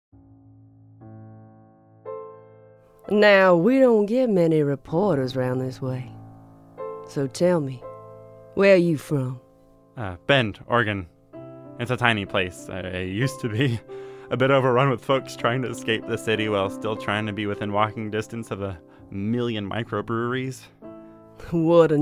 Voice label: Voiceover; Aunt Caroline